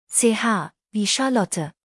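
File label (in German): "Charlotte", German spelling alphabet; Ch wie Charlotte; buchstabierung alt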